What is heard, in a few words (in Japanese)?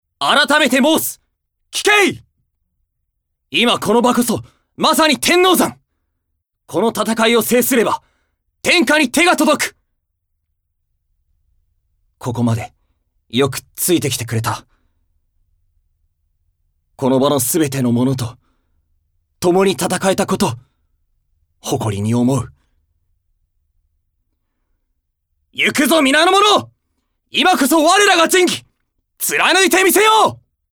凛々しい武将